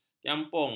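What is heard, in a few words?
"jampung", Malay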